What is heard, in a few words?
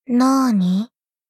doubt